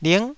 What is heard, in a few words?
"ling9", Taiwanese syllable